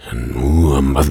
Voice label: Moon Tarot Card Reverse Voiceover